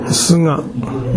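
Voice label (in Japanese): "suga", 南山形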